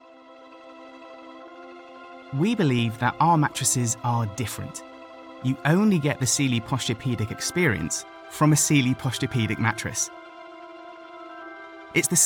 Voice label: Product Film; Sealy